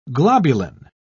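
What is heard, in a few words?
"globulin", English, British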